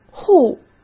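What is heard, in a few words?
"户", Mandarin Chinese; hsk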